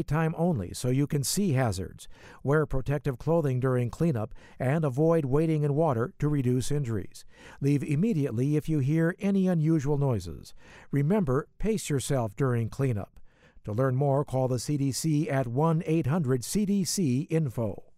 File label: psa disaster damaged buildings male voice